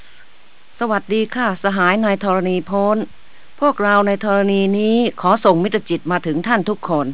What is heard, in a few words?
thai; Golden Record